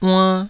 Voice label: "uann2", Taiwanese